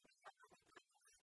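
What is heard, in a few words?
"уна-ӧ ӧні кадыс", Komi, female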